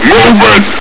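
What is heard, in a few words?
"movement!", male; hl2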